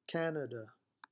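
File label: "Canada" English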